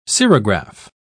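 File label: "cerograph", British English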